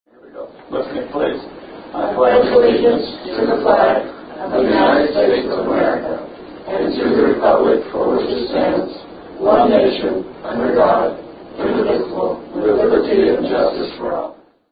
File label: Pledge of Allegiance, Old Recorder